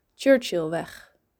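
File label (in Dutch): "Churchillweg", Dutch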